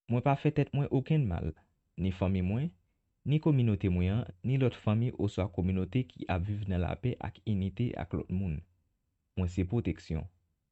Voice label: I Am Protection Creole Male